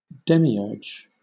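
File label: "demiurge", British English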